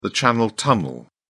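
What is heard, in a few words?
"channel tunnel", British English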